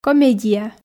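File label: "комедия", Komi, female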